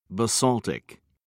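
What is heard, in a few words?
"basaltic", British English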